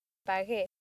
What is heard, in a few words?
"pague", accent; laboratori fonetica; practiques accent